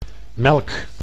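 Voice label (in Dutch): "melk" Dutch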